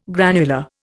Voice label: "granular", Indian